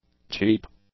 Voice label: "cheap", English; adjectives